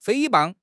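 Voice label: "诽谤", Mandarin Chinese; HSK; HSK6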